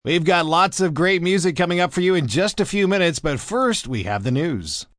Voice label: Lots Of Music M, Broadcast, announcer, breakaway, male